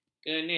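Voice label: "kenit", Malay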